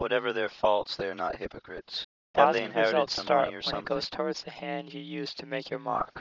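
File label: mixture 2 speakers male inharmonic shift; Inharmonic: shifted; Timit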